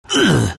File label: venm pain; dota; venomancer